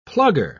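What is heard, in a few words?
"plugger", British English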